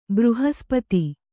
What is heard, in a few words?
"ಬೃಹಸ್ಪತಿ", kannada